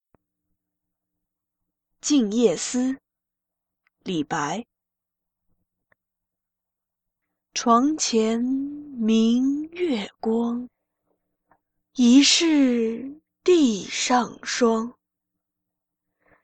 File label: quiet moonlit night chinese